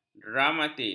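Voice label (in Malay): "dramatis", Malay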